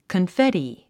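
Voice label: "confetti", English; 発音 kənféti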